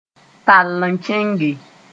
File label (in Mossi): "tall n kẽnge", moore